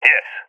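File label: affirmation, voice, agents, balkan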